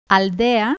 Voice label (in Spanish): cons d "aldea", cuba; spansk; fonetica